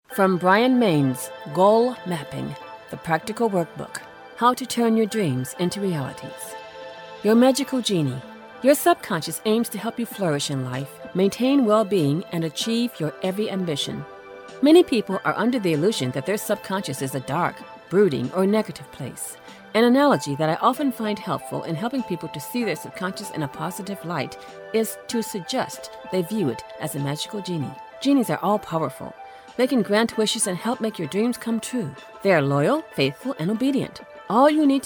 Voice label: english us and canada female e learning